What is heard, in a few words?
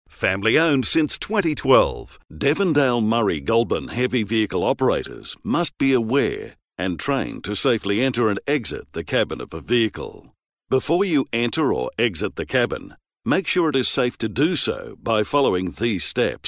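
Australian English, male; voice over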